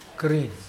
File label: "green", Mokilese